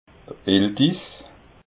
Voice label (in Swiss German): "iltis", alsace